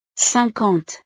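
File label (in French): "cinquante", French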